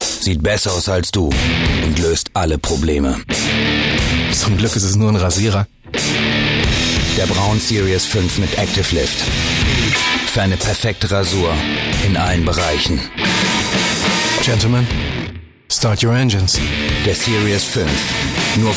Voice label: Werbespot; braun

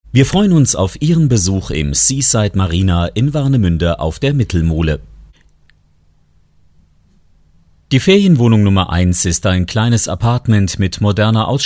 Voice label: wohnungswerbung

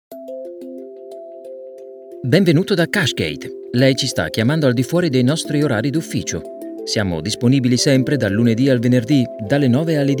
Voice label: telefon; cashgate

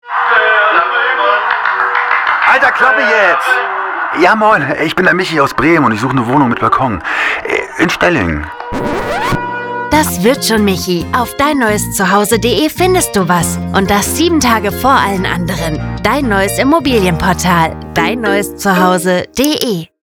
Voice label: Werbung; dnz

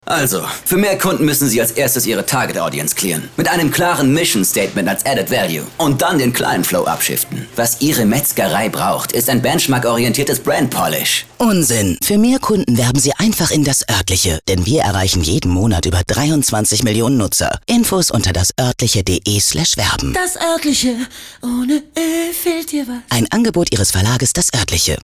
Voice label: funkspot; das oertliche; Muttersprache Deutsch

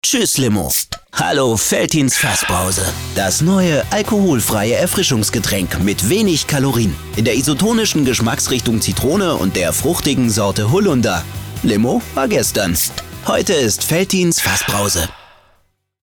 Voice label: werbung off dynamisch; veltins fassbrause

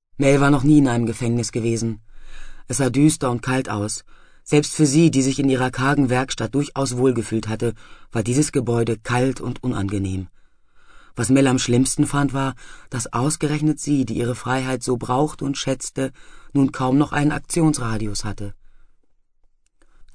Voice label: hoerbuch; die geschichte der mel; Sprecher in Berlin; Muttersprache Deutsch

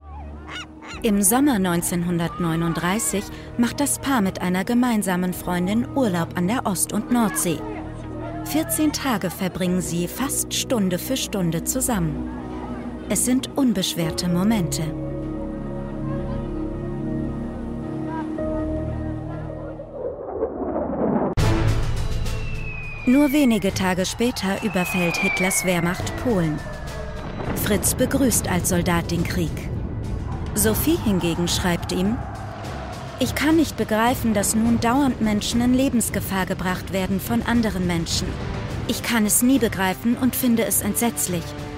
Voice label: sprachprobe, Sprecherin; Köln; Muttersprache Deutsch, Serbisch

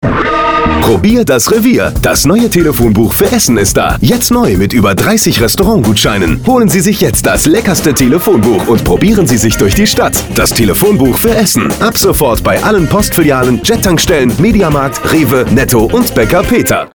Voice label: freundlich werbung

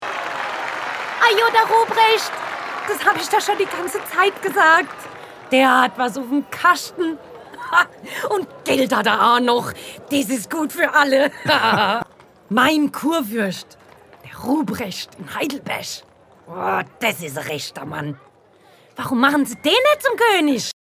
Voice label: kurpfaelzische marktfrau museumsfuehrer

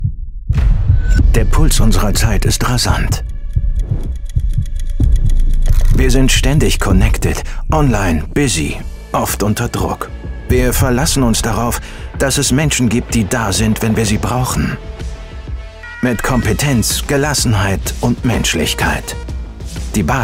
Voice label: werblich; 50 jahre notrufnummer

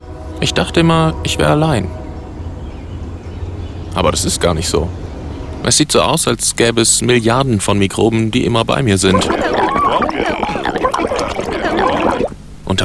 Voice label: internetspot; micropia

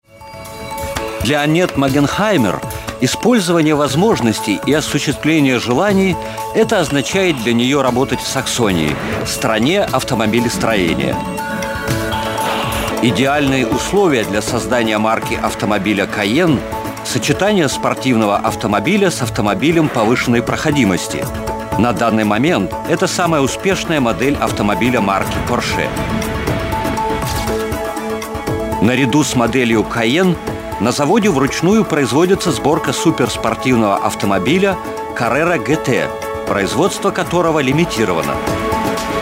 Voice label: imagefilm ru; sachsen

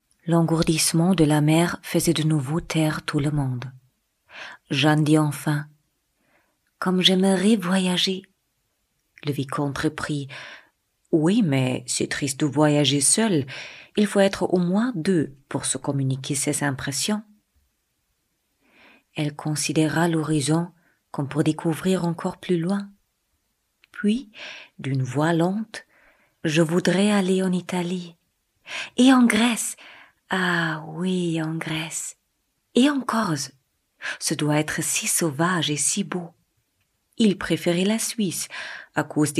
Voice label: franzoesisch

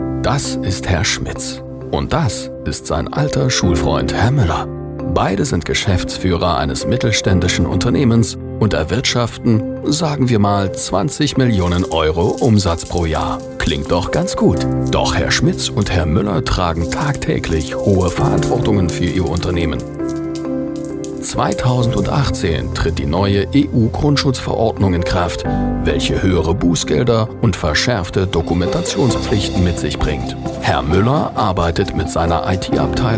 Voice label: erklarfilm; it agentur

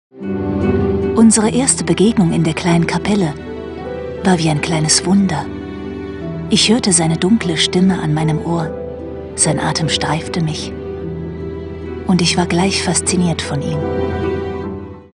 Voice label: Buchtrailer

